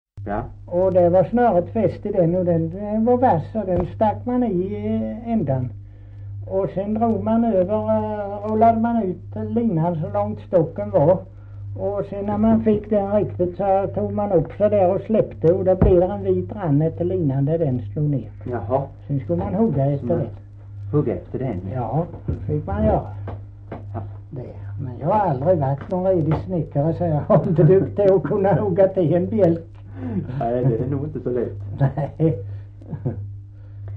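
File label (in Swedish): Kristianopel, Swedish dialect; svenska sagor; Folkminnen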